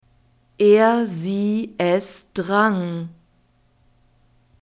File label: "er sie es drang", German; verbs past tense irregular